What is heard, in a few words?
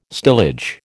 "stillage", American